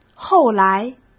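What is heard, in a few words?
"后来", Mandarin Chinese; hsk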